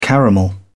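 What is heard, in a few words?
"caramel", English, British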